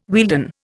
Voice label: "Wealden", Indian

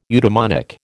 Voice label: "eudemonic", American

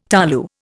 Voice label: "tolu", Indian

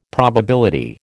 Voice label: "probability", American

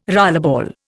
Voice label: "rollerball", Indian

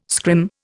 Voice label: "scrim", Indian

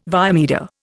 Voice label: "via media", Indian

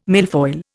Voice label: "milfoil", Indian

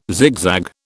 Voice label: "zigzag", British

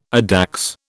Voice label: "addax", British

